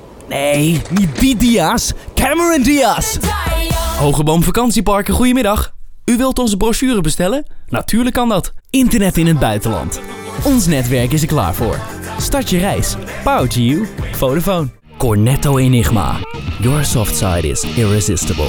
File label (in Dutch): Stemdemo Fris stoer